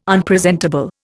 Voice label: "Unpresentable", Indian